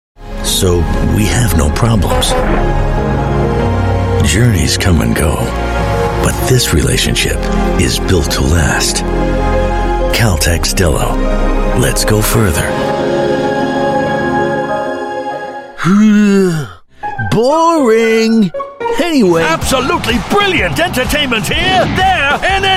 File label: US English